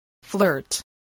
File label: "flirt", spelling